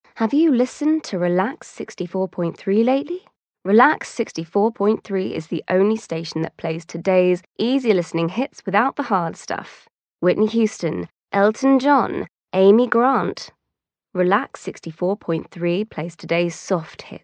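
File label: British English, female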